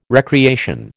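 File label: "recreation", American English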